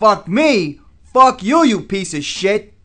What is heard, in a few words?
cuss, profanity, male; kingpin